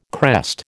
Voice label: "crest", American